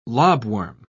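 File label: "lobworm", English, British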